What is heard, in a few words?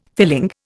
"filling", Indian